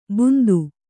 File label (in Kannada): "ಬೂಂದು", Kannada